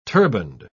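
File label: "turbaned", British English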